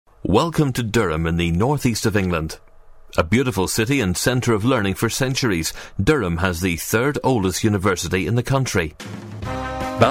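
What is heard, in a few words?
British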